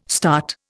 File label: "START", Indian